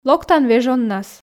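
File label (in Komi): локтан вежоннас, Komi, female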